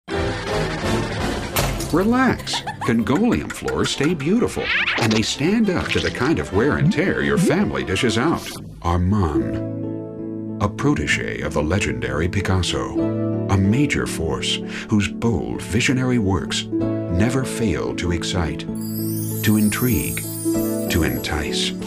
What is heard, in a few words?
Commercial; Voice Over Demos